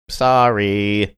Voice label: saying "sorry" singsongy